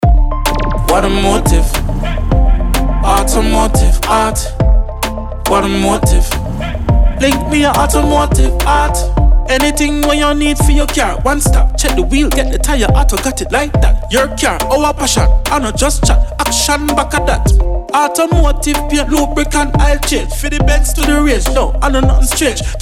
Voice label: dynamic jingle and town cry